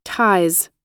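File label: "ties", US English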